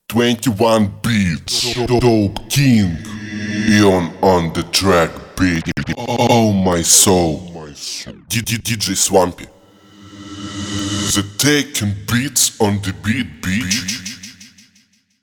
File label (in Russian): Voicetags Примеры мужских войстэгов